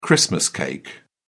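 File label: "christmas cake", British English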